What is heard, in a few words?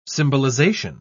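"symbolization", English, British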